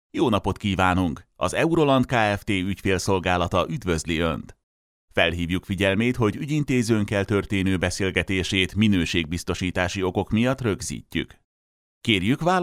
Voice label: hungarian ivr

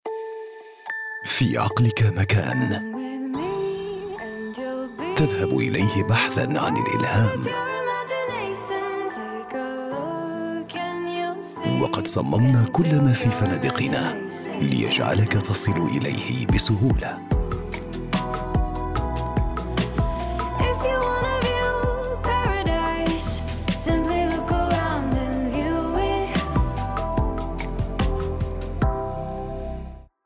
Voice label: arabic commercial

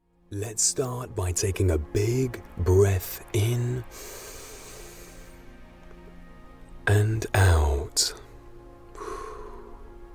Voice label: english british

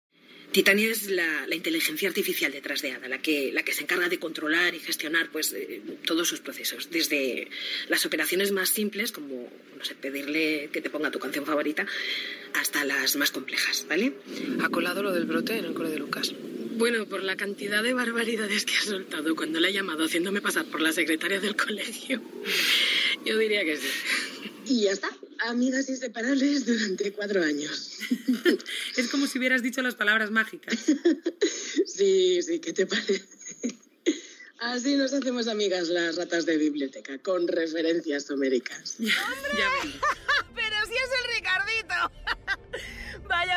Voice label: spanish podcasts

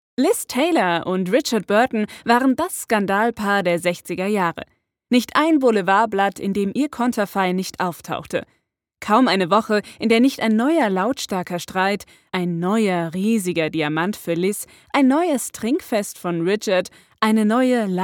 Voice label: german tv shows

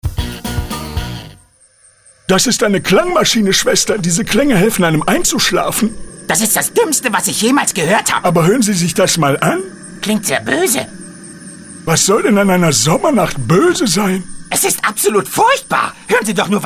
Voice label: german animation

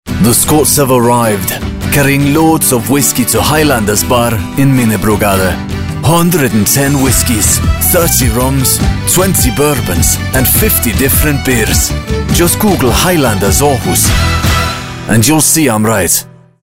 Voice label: english others

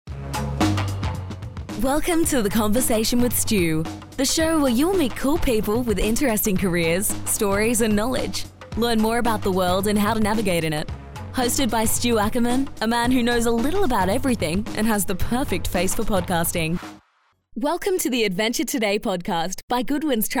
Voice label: english australian podcasts

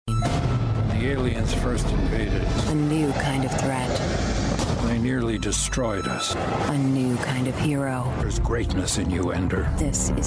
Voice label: english american movie trailers

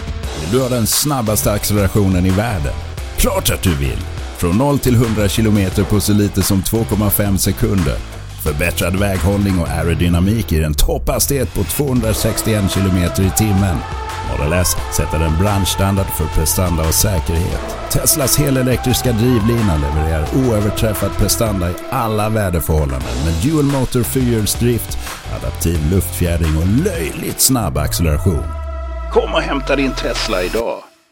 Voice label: Swedish automotive

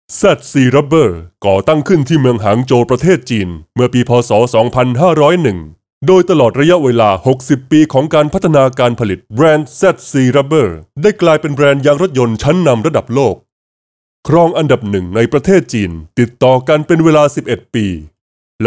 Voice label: Thai corporate videos

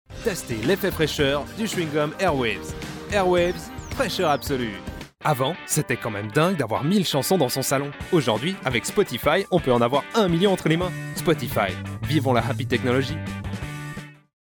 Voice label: french commercial

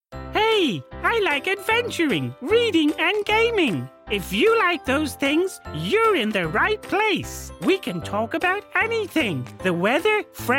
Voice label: english irish animation; Irish/English; Dublin; locutor profesional irlandés/inglés

